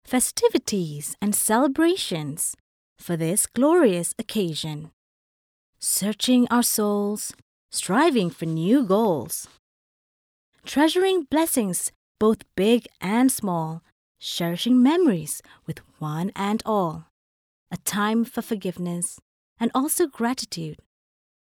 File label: Eng Script